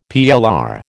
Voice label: "PLR", American